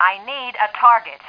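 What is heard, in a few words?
female need target; Tribes Demo; Starsiege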